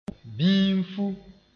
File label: "bĩinfu", moore